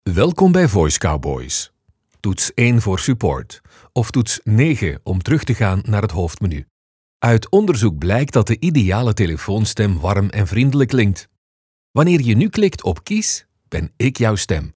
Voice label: VOICEMAIL